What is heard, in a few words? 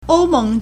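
"ou1meng2", Mandarin Chinese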